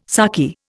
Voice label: "sarky", Indian